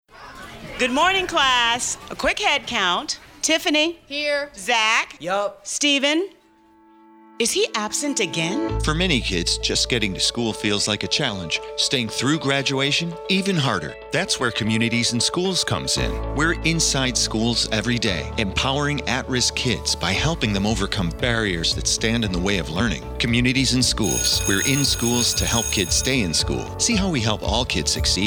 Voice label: RADIO 30SEC; HeadCount